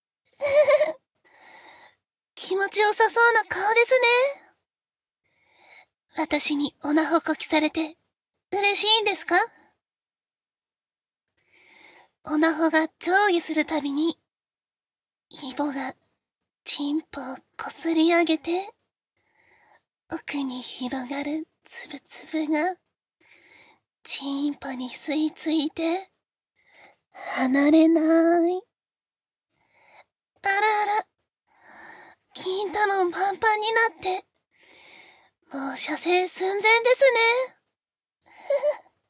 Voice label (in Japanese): お姉さん 普段はおっとりしてそうなのに責めるの好きなお姉さん, ASMR